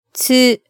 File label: "tsu", hiragana